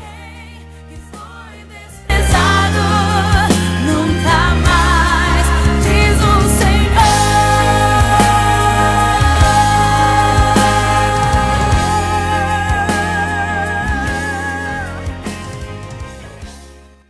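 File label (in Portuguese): aniversario evangelico para filho masculino, telemensagem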